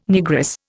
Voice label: "Negress", Indian